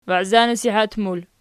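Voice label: "waizanasihat" plural, Archi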